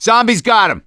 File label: hunter pounced, voice; Left 4 Dead 2; survivor; gambler